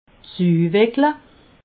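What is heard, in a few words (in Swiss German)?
"suuweckele", Alsatian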